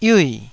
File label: "yiui4", taiwanese Syllables